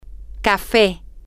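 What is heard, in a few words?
coffee, Spanish, female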